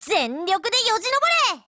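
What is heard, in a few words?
ATTACK Japanese; MPK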